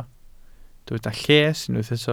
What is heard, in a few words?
"lles", Welsh